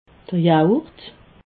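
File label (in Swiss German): "yogurt", Alsatian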